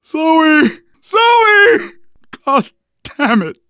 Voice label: grief teen girl, manager, survivor, voice